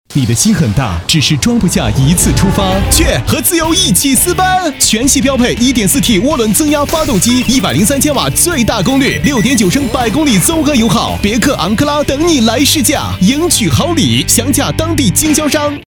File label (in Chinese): 男 广告, 国语男声配音; 别克